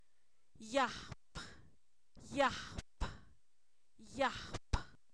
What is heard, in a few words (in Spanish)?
chayote, Zapoteco, san miguel albarradas; comestible